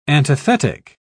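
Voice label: "antithetic", British English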